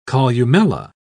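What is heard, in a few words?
"columella", British English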